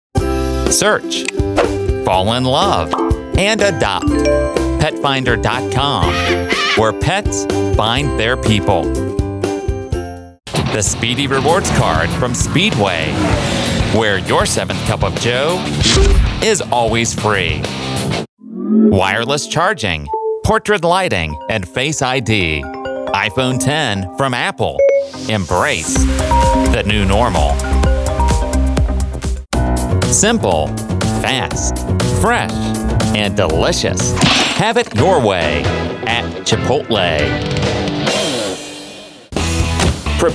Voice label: Commercial